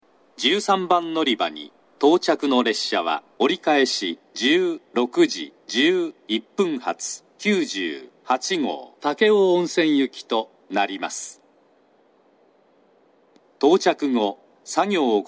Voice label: 長崎 西九州新幹線ホーム 自動放送, 13番のりば接近放送, 折り返し放送

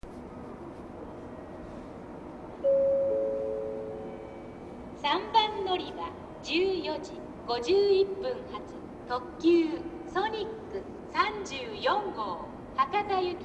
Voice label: 案内放送, 列車の騒音; 行橋; ソニック34号 博多行き; 当時は、特急券放送がありませんでした。; スピーカーはソノコラム

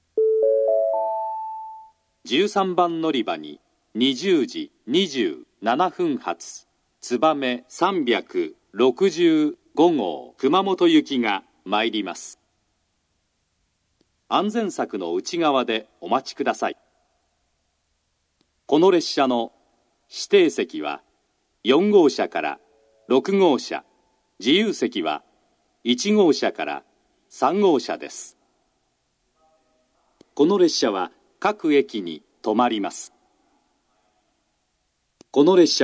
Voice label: 13番のりば予告放送, 新幹線ホーム; 筑後船小屋; 13番のりば予告放送（つばめ365号 熊本行き）; ・標準の放送内容です。

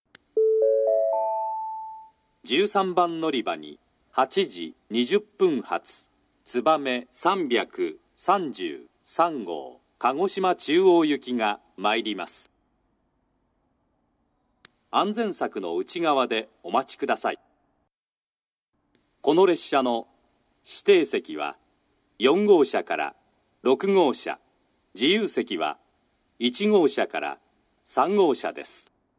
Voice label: 13番のりば予告放送, 新幹線ホーム; 新鳥栖; つばめ333号 鹿児島中央行き; 熊本で「みずほ601号」に接続、みずほ601号が鹿児島中央に先着

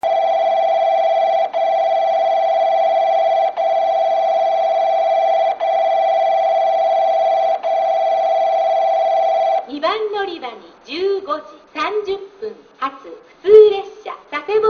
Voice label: 2番のりば接近放送, ベルが断続的に何回も鳴り; ハウステンボス; 普通 佐世保行き; これは赤間駅の接近ベルと似ています。; 放送内容も「到着します」と当駅独自の放送でした。

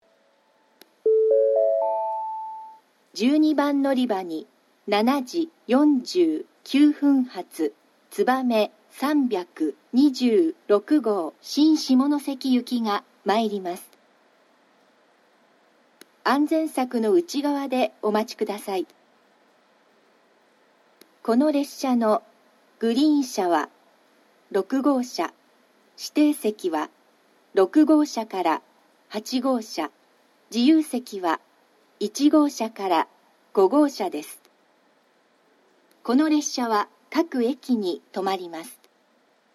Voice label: 12番のりば予告放送, 新幹線ホーム; 新鳥栖; つばめ326号 新下関行き

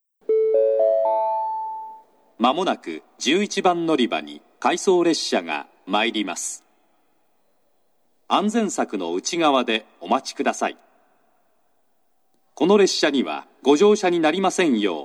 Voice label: 11番のりば接近予告放送（回送列車）; shin omura

